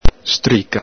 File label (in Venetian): "strica", Veneto; N.fem. sing.; ENG: insult, curse; ITA: ingiuria, bestemmia; el Galepin